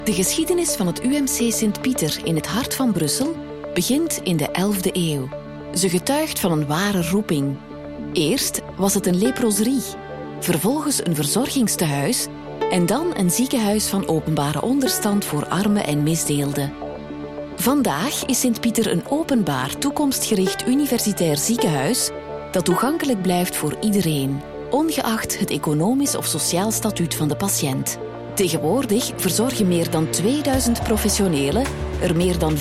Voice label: corporate; umc sint-pieter